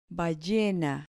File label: "ballena", Spanish